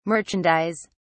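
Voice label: "merchandise", English, British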